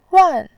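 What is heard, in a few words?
"ruan", Mandarin Chinese, fourth tone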